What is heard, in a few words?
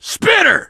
spitter, survivor voice biker; l4d1; Left 4 Dead 2